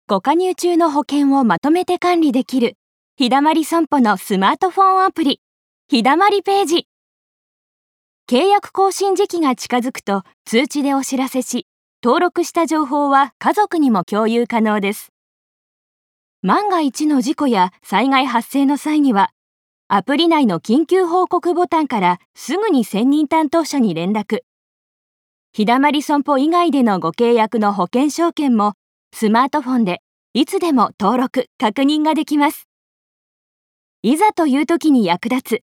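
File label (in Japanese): アプリ利用の案内ナレ, ボイスサンプル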